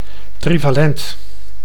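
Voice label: "trivalent", Dutch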